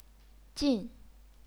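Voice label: "jin", Chinese, fourth tone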